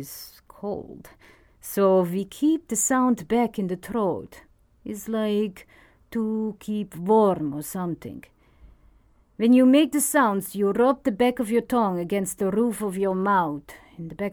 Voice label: VOICE OVER RUSSIAN